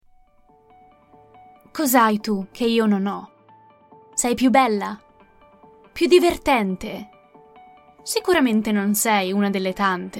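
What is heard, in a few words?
Italian, General; GoLocalise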